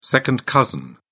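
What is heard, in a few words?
"second cousin", British English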